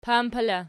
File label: "pampi", Archi